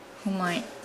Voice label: "come", Nukuoro

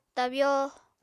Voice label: "tabyo", Apatani

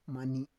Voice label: "mani", Roon

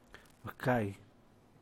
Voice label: "bcay", San Mateo Macuilxochitl Zapotec; get dark; oscurecer

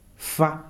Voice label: "die", Kalanga

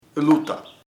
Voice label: right (solution or true) solucion, chamacoco

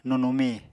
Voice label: "nunumi", Apatani

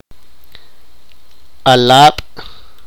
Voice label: sipakapense; boy

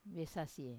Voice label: "vesasie" good, Wamesa

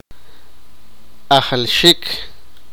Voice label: sipakapense; count

